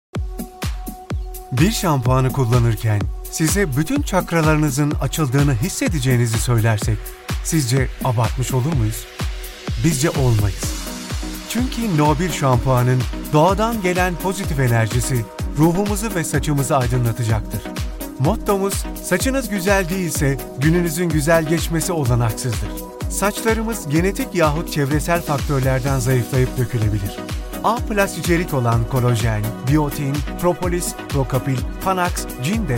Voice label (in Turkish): reklam cesitli